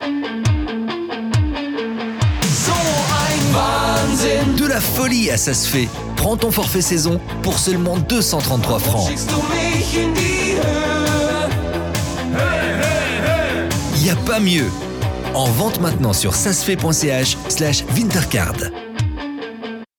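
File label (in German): Werbung, French, Swiss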